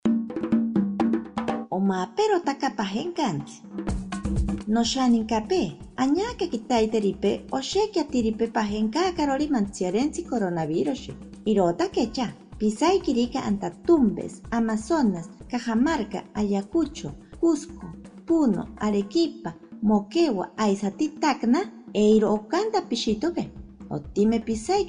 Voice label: ashaninka nuevas medidas, spot de radio; precauciones al salir de mi comunidad

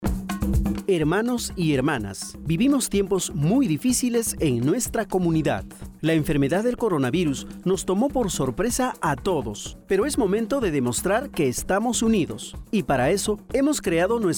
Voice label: castellano, spot de radio; comando covid indigena; sospecha o confirmacion de contagio; pista amazonica

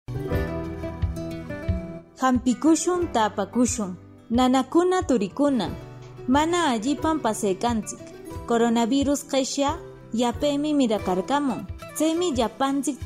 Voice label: quechua ancash, spot de radio; tomar medicamentos con precaucion; sospecha o confirmacion de contagio

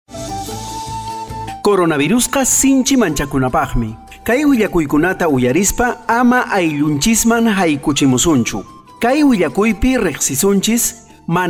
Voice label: quechua cusco collao uso de mascarillas 1 voz, spot de radio; medidas de prevencion

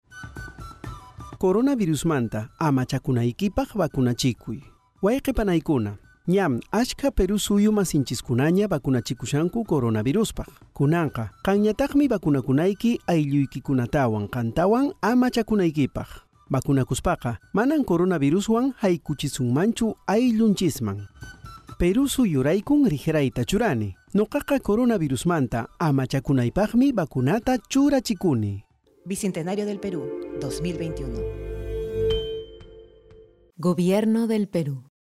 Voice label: quechua cusco collao, spot de radio; vacunate para protegerte del coronavirus; vacuna contra el coronavirus

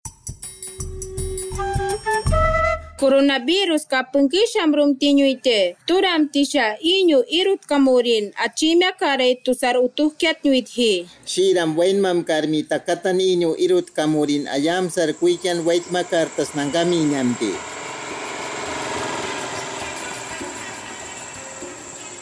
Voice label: achuar 2 voces, spot de radio; cuidarnos inicio actividades; precauciones al salir de mi comunidad

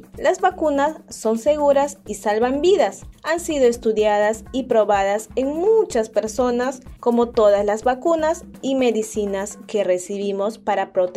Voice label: castellano localidades amazonicas microprograma 1 preguntas y respuestas; vacuna coronavirus; vacuna contra el coronavirus

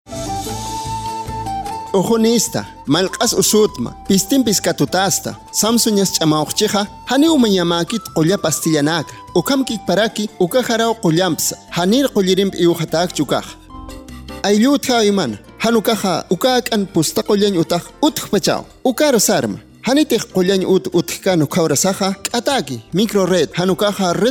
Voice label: aimara, spot de radio; en caso de presentar sintomas; sospecha o confirmacion de contagio

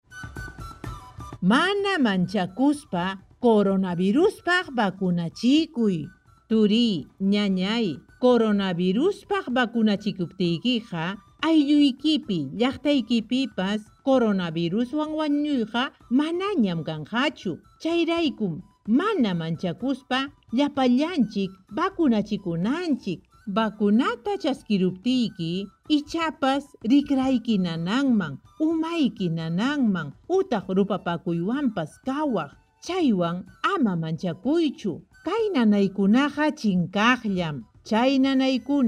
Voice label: quechua chanka, spot de radio; vacunate sin miedo contra el coronavirus

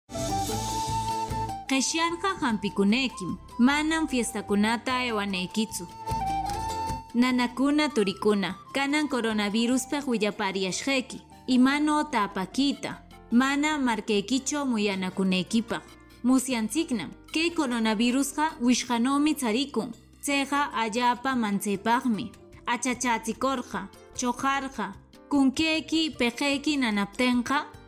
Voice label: quechua ancash, spot de radio; atender sintomas y evitar eventos festivos; sospecha o confirmacion de contagio

